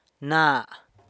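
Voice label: "na", hand, San Lucas Quiaviní Zapotec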